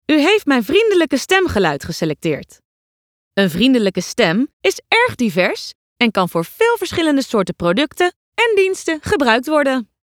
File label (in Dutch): Vriendelijk